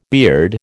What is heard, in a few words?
"beard", American